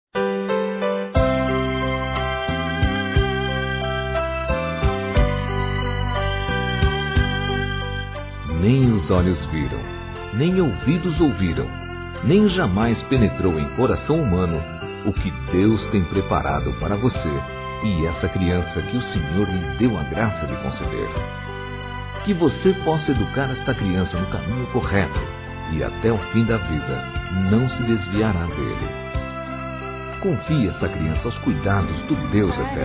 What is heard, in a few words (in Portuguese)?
A QUEM SE TORNOU MÃE, Telemensagens Gospel masc